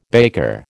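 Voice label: "baker", American English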